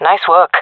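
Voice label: compliment, female; jungle fem; agents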